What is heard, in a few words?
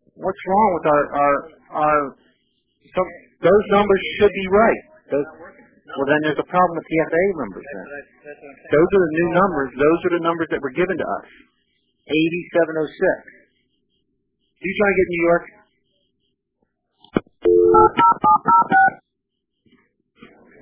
Phone Call; Richmond ZNY; DRM2, Channel 15